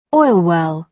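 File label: "oil well", British English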